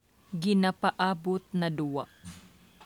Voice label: Cebuano